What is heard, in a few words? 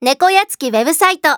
title call, voice